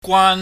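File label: "gwaan", Cantonese, high falling tone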